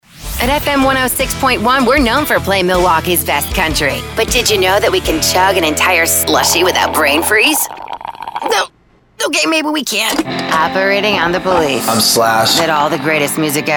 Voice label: radio imaging women